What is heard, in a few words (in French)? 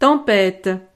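"tempete", French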